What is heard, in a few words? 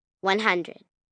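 Numbers One Hundred, 1 child, girl counting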